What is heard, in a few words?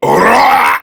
Death Prime Grineer Male; Tau; Battle Barks